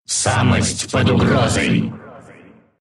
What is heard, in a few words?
underattack ru; Dota 2